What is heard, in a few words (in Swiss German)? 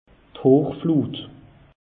"hochflut", alsace; meteo